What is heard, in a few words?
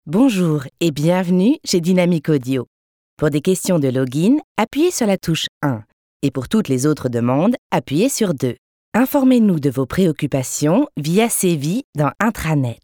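French telephone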